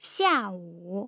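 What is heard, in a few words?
"xiawu", Mandarin Chinese, tone pairs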